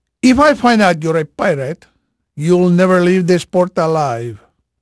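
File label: Portuguese male, voice; Pirates Of The Caribbean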